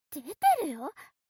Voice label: mob 女子 台詞

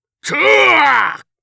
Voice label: たあああっ！！！, 戦闘ボイス 中年・低音

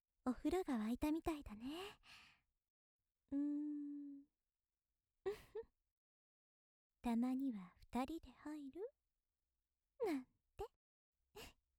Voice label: 優しいお姉さん おふろだよ

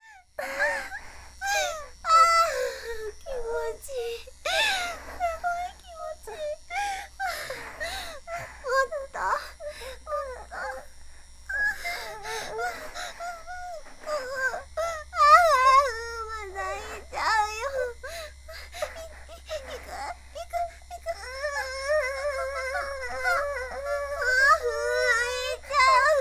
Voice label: 絶頂合わせ 電マ カウントダウン

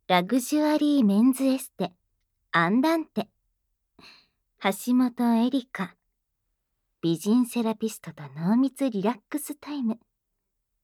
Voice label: タイトルコール

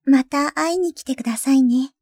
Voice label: シャットアウト, システムボイス; 健気な許嫁のほのあま耳かき; ドット＊ハーフ; ほのあまシステムボイス

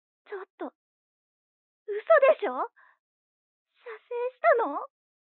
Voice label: 彼女の電話台詞, ASMR

